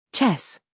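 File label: "scandal", American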